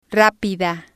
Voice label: "rapida", spanish

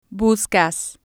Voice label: "buscas", spanish

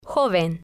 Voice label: "joven", spanish

